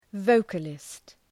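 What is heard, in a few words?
"vocalist", English; {‘vəʋkəlıst}; (Επίθετο)● αοιδός (Ουσιαστικό)● τραγουδιστής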